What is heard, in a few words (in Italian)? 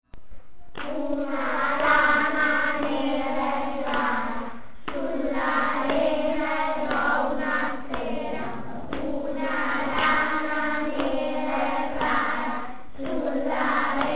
rana, scioglilingua